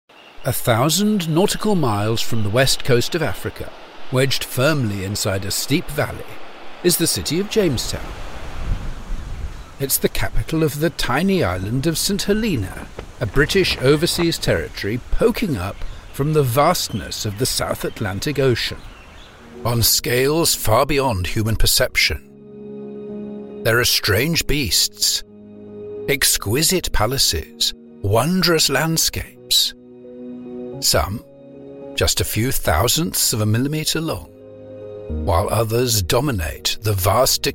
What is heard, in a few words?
documentary